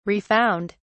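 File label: "refound", English, uk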